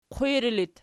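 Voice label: qwiri locative, Archi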